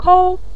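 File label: "kou", Mandarin Chinese, neutral tone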